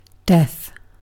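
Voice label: "death", British English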